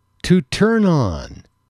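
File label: "to turn on", English, male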